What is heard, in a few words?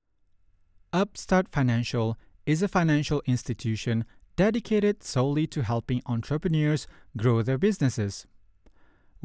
male, English with Malay accent, corporate; Devine Voices